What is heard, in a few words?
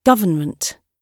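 "government", British English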